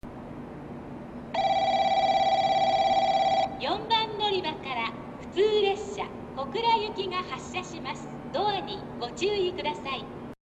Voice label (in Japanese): 発車放送; 行橋; 普通 小倉行き